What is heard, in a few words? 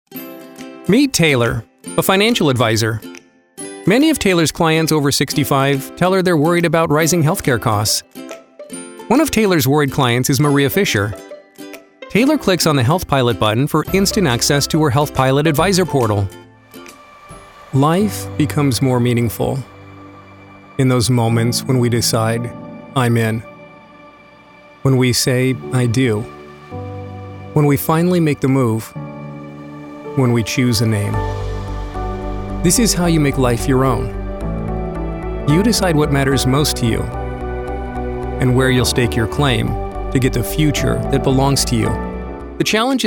conversational